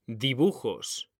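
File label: "DIBUJOS", Spanish; mekanta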